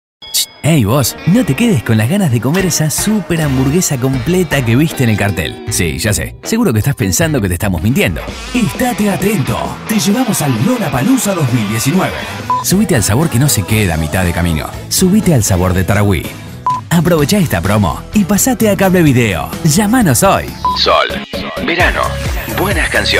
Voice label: Juvenil Variada Suelto, voces masculinas

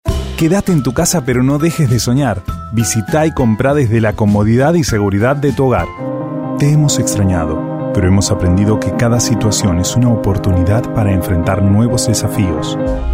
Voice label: Graves Comercial Medios

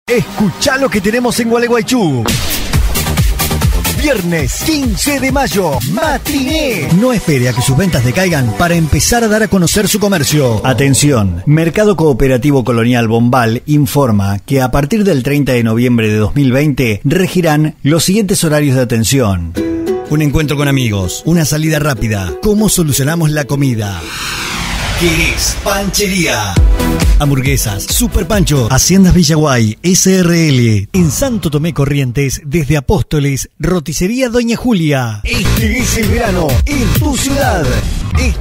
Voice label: Versatil Comercial Dinamica, voces masculinas

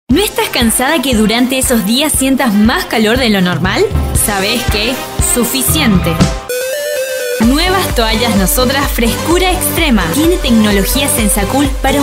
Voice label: Amena Dulce Comercial

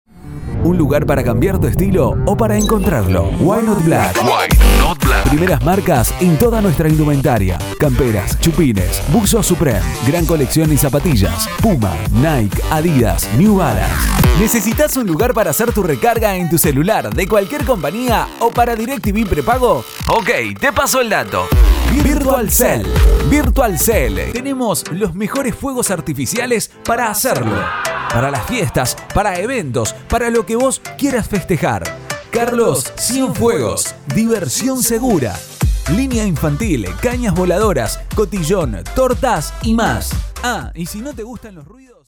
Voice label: Medios Vendedor Amena, voces masculinas

